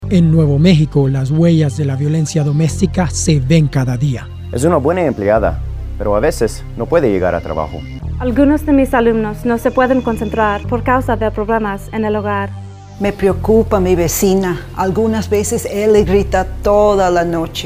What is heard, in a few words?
Radio Spanish; CYFD